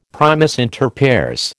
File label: "primus inter pares", American